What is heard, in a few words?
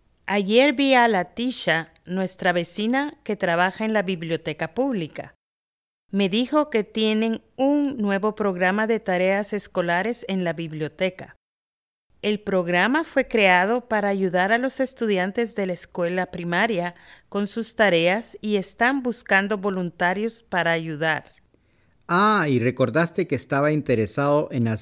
Listening Spanish Item